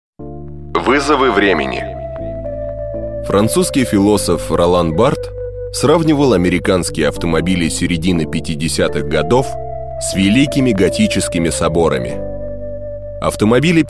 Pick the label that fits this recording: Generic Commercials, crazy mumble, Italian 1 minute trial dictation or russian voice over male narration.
russian voice over male narration